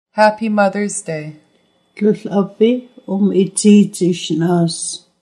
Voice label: Happy mothers day, Nuu-chah-nulth language phrases